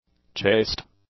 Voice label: "chaste", English; adjectives

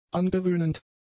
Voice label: "angewöhnend", German; adjectives

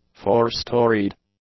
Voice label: "four-storied", English; adjectives

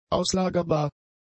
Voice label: "auslagerbar", German; adjectives

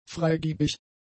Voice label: "freigiebig", German; adjectives

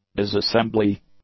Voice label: "disassembly", English; nouns

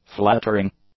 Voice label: "flattering", english; adjectives

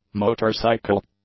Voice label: "motorcycle", English; nouns

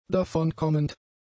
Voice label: "davonkommend", German; adjectives

